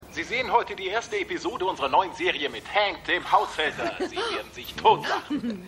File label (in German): TV Sprecher